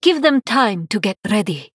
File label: Female Draenei